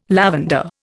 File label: "lavender", Indian